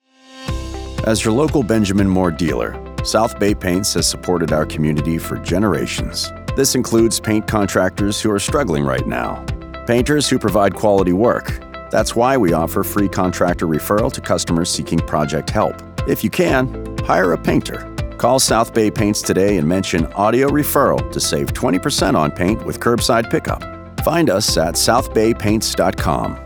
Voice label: English Ad; South Bay Paints